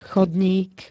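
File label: "chodník", Slovak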